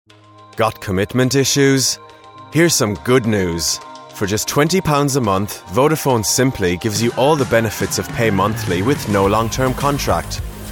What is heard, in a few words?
advert; vodafone